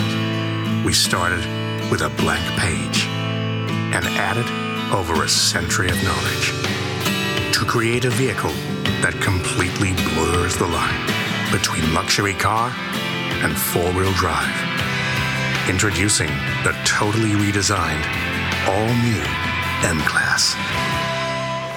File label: Mercedes M class Radio Spot